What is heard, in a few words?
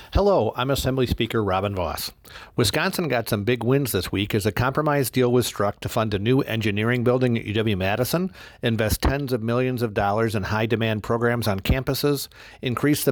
Weekly Radio Address; Speaker Vos